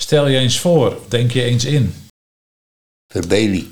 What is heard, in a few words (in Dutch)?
Verbeelie, dialect Nieuw lekkerlands; Stel je eens voor; Denk je eens in